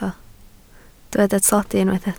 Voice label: "tlodi", Welsh